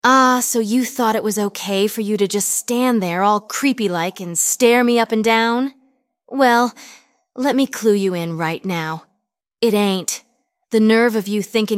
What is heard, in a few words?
synthesized audio